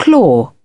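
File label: "class", British English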